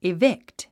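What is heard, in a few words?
"evict", English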